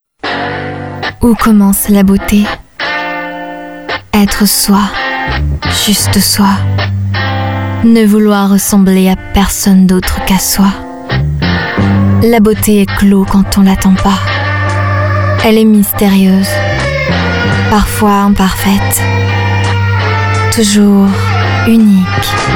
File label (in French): voix off; demo voix off